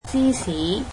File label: cheese, cantonese